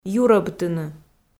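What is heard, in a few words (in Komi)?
"юрӧбтыны" Komi, female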